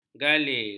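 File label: "galir", Malay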